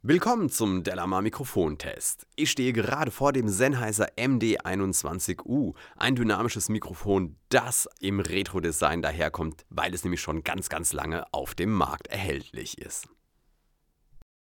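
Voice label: speech male; sennheiser md21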